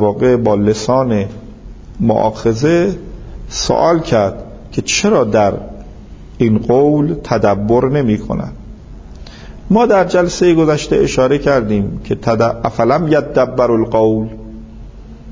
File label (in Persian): سوره المومنون; تفسیر قرآن